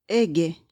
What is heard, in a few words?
"ege", esperanto; vortoj